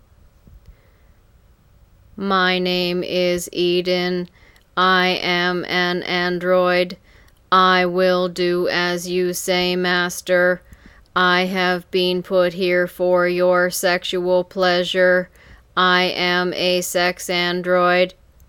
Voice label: Eden robot voice